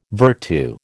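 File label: "vertu", American English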